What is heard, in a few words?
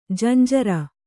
"ಜುಂಜುರು", Kannada